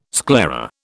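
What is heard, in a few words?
"sclera", British English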